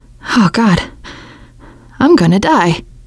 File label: going to die, teengirl, voice